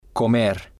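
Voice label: "comer", Spanish, male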